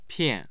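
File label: "pian4", Mandarin Chinese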